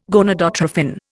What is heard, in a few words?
"gonadotrophin", Indian